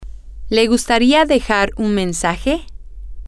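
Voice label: Spanish, female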